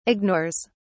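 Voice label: "ignores", English, British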